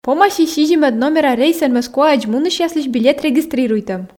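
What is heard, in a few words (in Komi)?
помасис сизимӧд номера рейсӧн мӧскуаӧдз мунысьяслысь билет регистритуйтӧм, Komi, female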